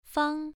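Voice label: "fang" Mandarin, first tone, pronunciation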